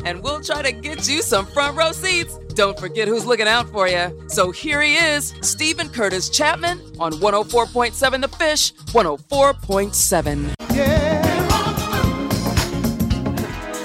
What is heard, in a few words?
Radio Imaging Podcast Announcer Imaging Station IDs Weather